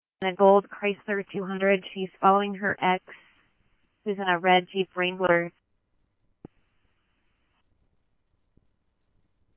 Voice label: Scottsdale Police, scanner; SPD - PD1; RWC; H-Scottsdale